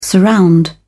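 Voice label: "surround", British English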